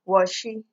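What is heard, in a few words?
"washy", Nigerian Pidgin, female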